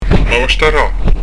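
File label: "ma bastara", Prononciation du corse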